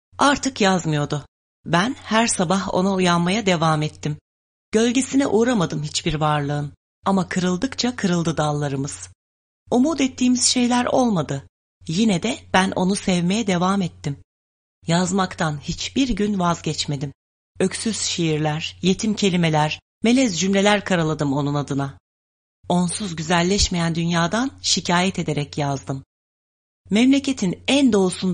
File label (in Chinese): 土耳其语女 外语 小语种 样音